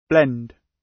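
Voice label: "blend", British English; The arts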